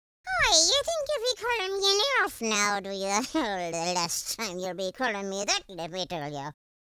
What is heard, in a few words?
angry, Irish; speech